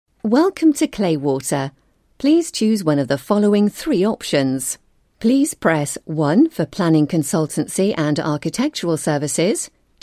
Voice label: telephone ivr